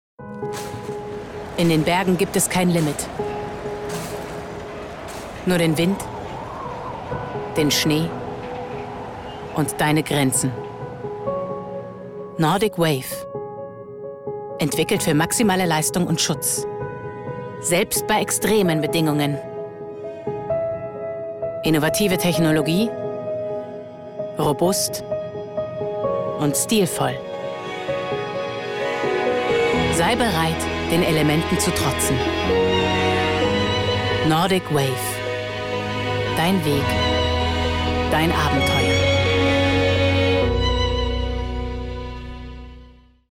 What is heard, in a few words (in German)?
Frau OFF